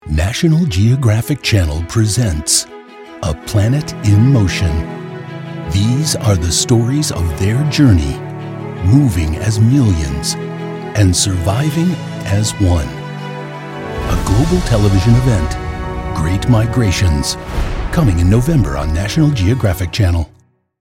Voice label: Promo; NAT GEO MIGRATIONS